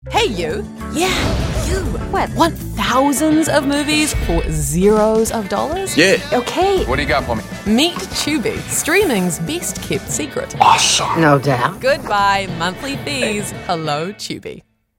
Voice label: english new zealand movie trailers